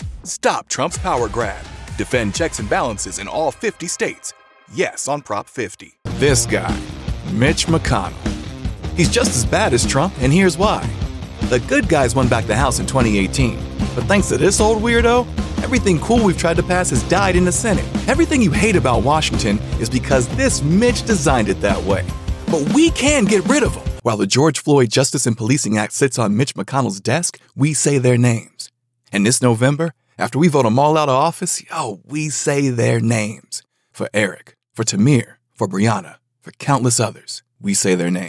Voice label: Political, voiceover